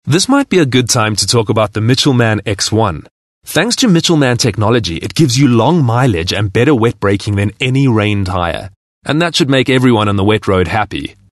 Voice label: English Conversational